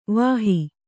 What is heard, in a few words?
"ವಾಹಿ", Kannada; vāhi; noun; a chariot; a car.